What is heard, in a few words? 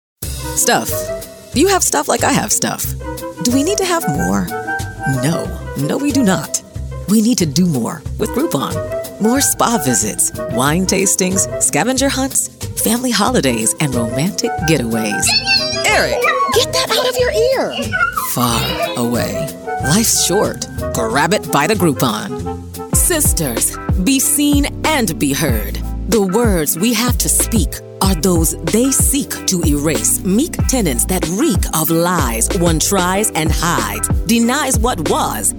Commercial, Female Voice Over